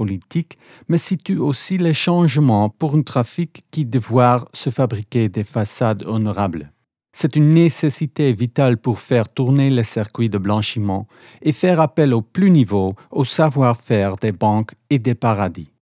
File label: Language French Docu